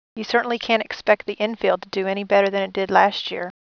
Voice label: speech F